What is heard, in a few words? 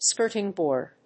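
"skirting board", English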